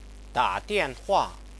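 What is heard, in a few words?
"da dianhua", Mandarin Chinese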